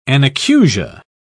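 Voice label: "anacusia", British English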